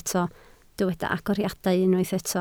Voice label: "agoriadau", Welsh